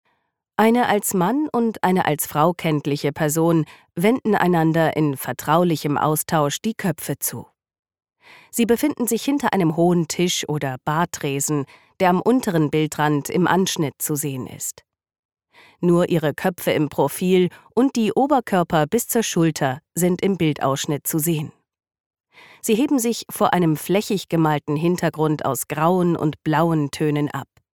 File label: de audioguide, tysk, female